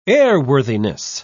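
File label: "airworthiness", British English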